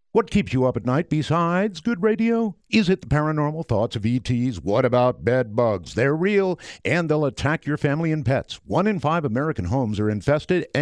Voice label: live read; Cedarcide; Art Bell